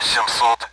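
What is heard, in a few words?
Speech Sound Russian Common AWACS Numbers; DCS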